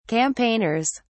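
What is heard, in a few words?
"campaigners", British English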